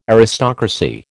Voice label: "Aristocracy", American